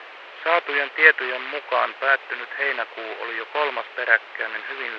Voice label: male